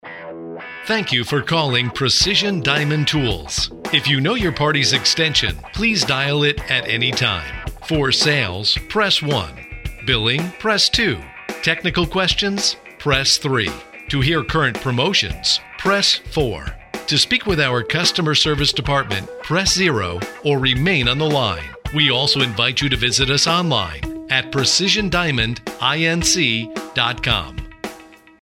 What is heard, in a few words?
ivr sales, onhold message